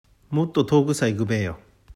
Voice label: 「いぐべー」, 旭弁 銚子弁; 行こう; 「もっとおぐさ行ぐべーよ」; （訳）もっと遠くへ行こうよ

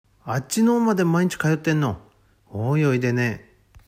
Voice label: 「よいでねー」, 旭弁 銚子弁; 容易ではない; 「あっちのぉーまでまいんち通ってんの？おーよいでねー; （訳）あっちの方まで毎日通ってるの？ほんとに大変ね

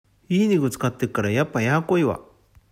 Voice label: 「やーこい」, 旭弁 銚子弁; やわらかい; 「いいにぐ使ってっからやっぱやーこいわ」; （訳）良い肉使っているからやはり柔らかいね

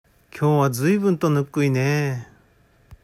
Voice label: 「ぬっくい」, 旭弁 銚子弁; あたたかい; あったかい; 「今日は随分とぬっくいねー」; （訳）今日は随分とあたたかいねー

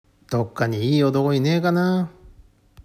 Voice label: 「おどご」, 旭弁 銚子弁; 彼氏; 「どっかにいいおどごいねーがなー」; （訳）何処かにいい男いないかなー

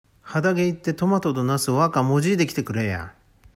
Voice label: 「もじぐ」, 旭弁 銚子弁; ちぎり取る; ちぎり取れる; 「はだげ行ってトマトどナスわーかもじいで来てくれーや」; （訳）畑に行ってトマトとナス少し収穫して来てちょうだい

